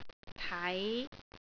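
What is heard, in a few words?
"tai5", Cantonese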